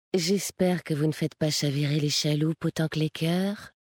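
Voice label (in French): French Femme Flirt; Kultirassien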